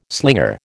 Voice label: "slinger", American

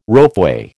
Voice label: "ropeway", American

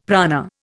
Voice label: "prana", Indian; Dictionary

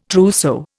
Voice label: "trousseau", Indian

